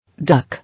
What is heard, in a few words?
"Duck", English